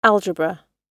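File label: "algebra", British English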